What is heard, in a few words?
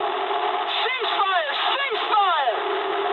eng player friendly fire mood low, speech eng